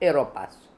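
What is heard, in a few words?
"Heropass", pronunciations; Fourth Way; Beelzebub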